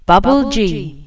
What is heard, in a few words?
bubble g, announcer